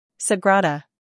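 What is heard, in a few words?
"humor", British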